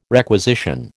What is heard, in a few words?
"requisition", American